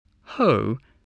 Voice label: "hoe", English, spelling